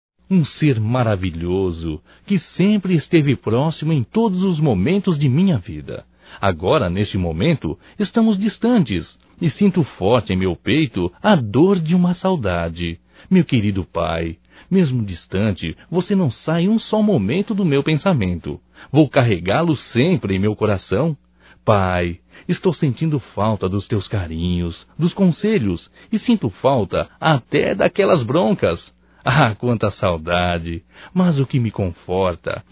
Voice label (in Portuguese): SAUDADES PAI, Só Voz, masc; Telemensagens; Telemensagens 2017